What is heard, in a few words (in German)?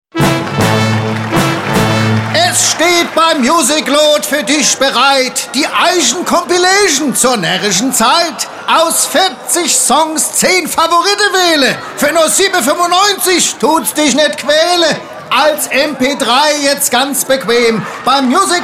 Hessisch, Dialekte